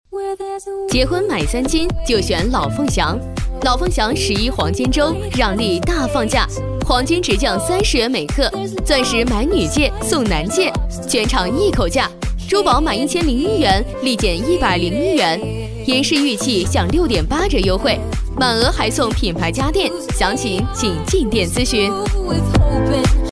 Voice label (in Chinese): 女, 促销广告, 珠宝; 老凤祥珠宝